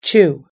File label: "chew", us English